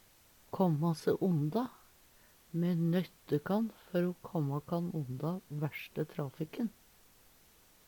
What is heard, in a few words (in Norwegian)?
kamma se onda, Numedalsmål